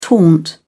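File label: "taunt", British English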